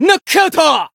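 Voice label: Voice Japanese; finish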